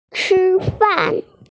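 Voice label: "chifan", Mandarin Chinese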